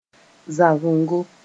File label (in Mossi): "zagengo", moore